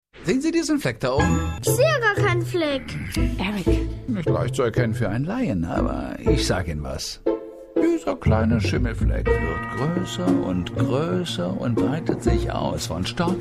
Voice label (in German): Synchron Collage; Hörproben; div Rollen